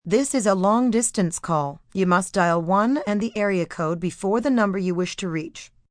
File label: Long Distance, Telephone operator, female, recorded; Telephone Voice